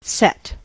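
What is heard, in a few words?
"set", US English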